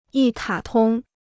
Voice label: "一卡通", Chinese

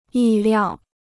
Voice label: "意料", Chinese

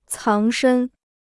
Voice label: "藏身", Chinese

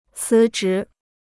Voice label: "辞职", Chinese

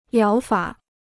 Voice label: "疗法", Chinese

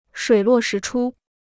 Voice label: "水落石出", Chinese

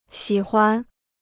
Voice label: "喜欢", Chinese

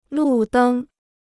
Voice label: "路灯", Chinese

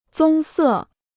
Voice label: "棕色", Chinese

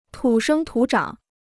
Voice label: "土生土长", Chinese

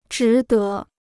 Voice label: "值得", Chinese

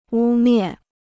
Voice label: "污蔑", Chinese

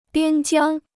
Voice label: "边疆", Chinese

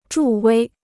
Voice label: "助威", Chinese

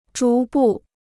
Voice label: "逐步", Chinese

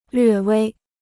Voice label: "略微", Chinese

